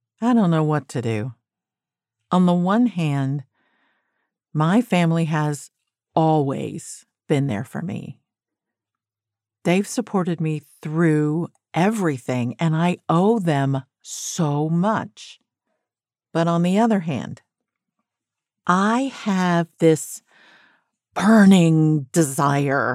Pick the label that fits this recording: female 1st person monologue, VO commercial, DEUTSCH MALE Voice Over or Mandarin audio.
female 1st person monologue